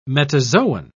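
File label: "metazoan", English, British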